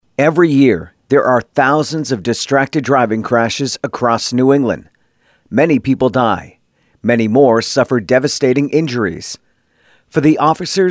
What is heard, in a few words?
Distracted Driving Radio